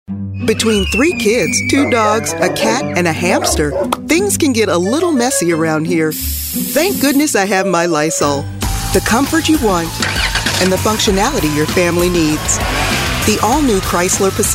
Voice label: english female voices american accent